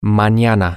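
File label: Spanish, tomorrow, male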